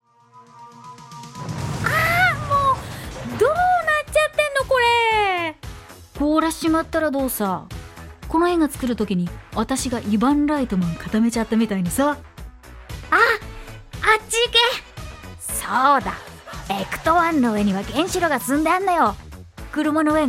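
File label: Japanese characters; GoLocalise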